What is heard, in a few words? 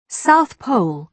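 "south pole", British English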